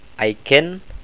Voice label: close, maasai